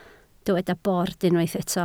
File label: "bord", Welsh